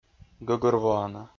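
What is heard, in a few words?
"гӧгӧрвоана", Komi-Zyrian, male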